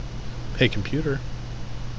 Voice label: "hey computer", en